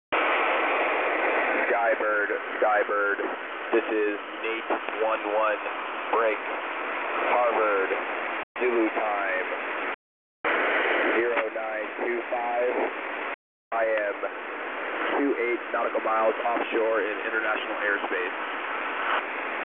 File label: Automated Recording